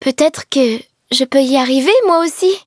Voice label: level up, fr

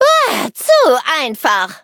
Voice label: victory, de; Cookie Run

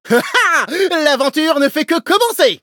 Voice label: loading, fr

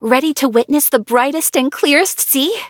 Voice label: levelup, en; cookierunwiki

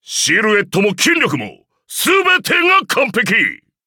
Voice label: levelup ja; cookierunwiki

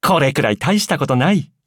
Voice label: levelup, ja; cookie run

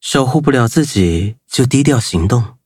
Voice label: loading, zh-hant; Cookie Run

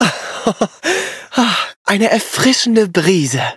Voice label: levelup, de; cookie run

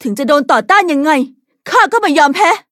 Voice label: level up, th; Cookie Run

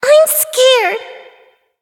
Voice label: defeat, en

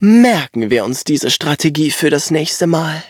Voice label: victory, de; cookie run

